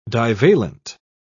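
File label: "divalent", British English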